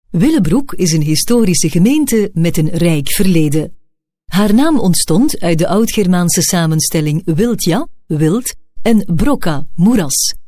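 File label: flemish female narration; dutch; voice demos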